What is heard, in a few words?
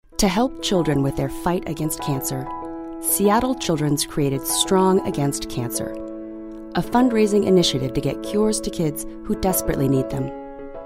Voiceover